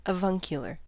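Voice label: "avuncular", American English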